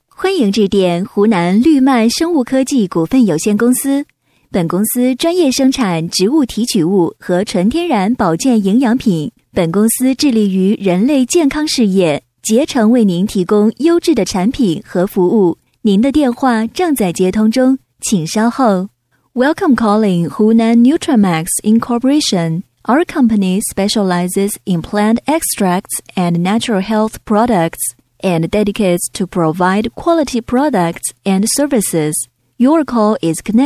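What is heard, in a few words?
chinese mandarin female e-learning; voice demos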